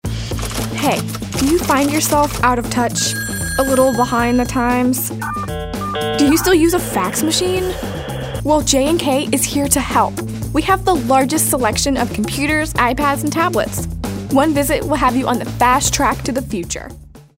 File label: narrative